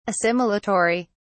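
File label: "assimilatory", British English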